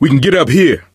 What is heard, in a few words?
misc directional, coach, survivor voice